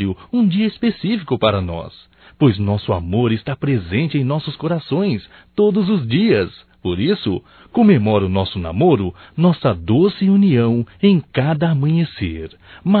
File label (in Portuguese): DIA DOS NAMORADOS, Só Voz, masc; Telemensagens; HOMENAGENS